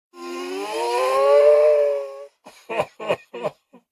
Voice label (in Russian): golos prizraka oooouuuu